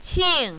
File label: "qing4", mandarin, female